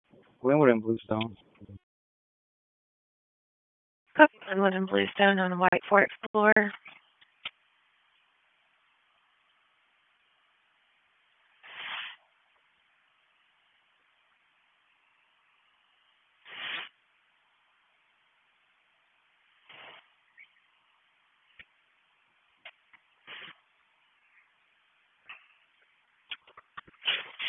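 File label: Police Southwest District Dispatch, p25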